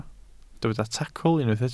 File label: "tacl", Welsh